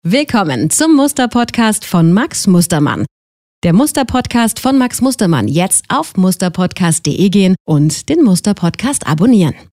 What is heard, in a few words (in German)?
PodCast Stimme W2, Podcast